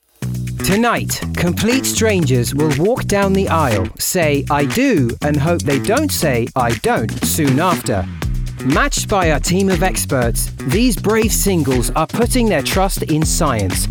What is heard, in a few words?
Documentary Reel; Married At First Sight UK